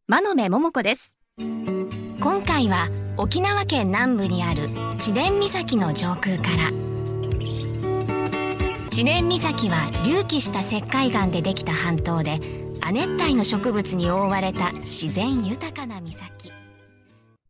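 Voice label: female tv travel, English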